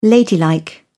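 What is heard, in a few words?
"ladylike", British English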